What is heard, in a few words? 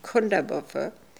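"Kundabuffer", pronunciations; Fourth Way; Beelzebub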